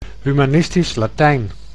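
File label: "humanistisch Latijn", Dutch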